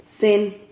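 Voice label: "cen", Spanish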